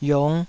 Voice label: "yong5", taiwanese Syllables